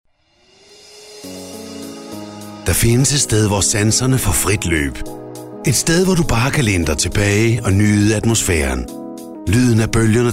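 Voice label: danish male commercial, voice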